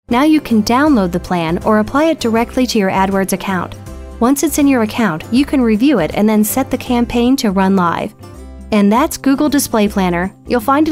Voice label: 30s Female Narration